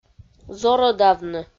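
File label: "зорӧдавны", Komi-Zyrian, female; audio dict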